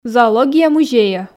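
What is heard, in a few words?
"зоология музейӧ", Komi, female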